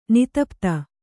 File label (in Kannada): "ನೀತಿಪಥ", Kannada